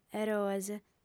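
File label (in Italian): "eroes", lingua sarda, female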